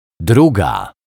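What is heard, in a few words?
"druga", Polish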